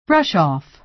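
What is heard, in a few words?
"brush off", American English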